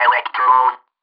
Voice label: ELECTRO MALE 140 A, Vocals